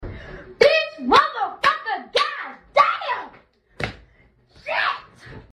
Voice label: Kid cussing